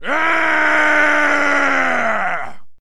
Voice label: man victory; Mount&Blade Warband